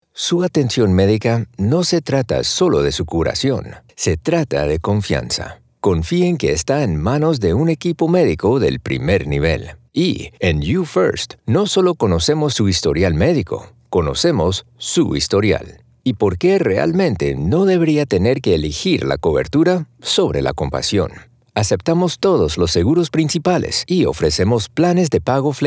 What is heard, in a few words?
Sincere